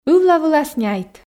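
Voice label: ывла вылас няйт, Komi, female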